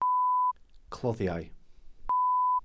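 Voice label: "cloddiau", Welsh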